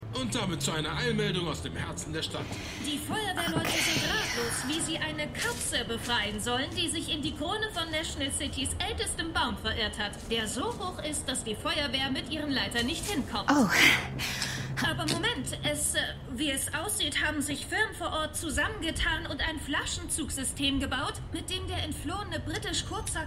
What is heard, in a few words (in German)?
Nachrichtensprecher Reporterin Feuerwehrmann; Supergirl